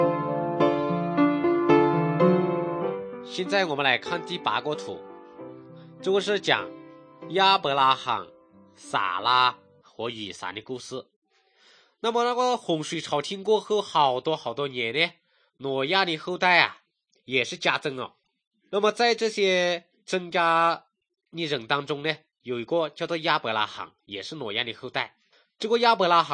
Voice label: good news shan, drama